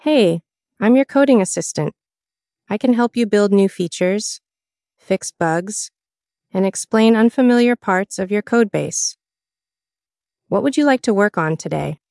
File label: neutral; agents Voice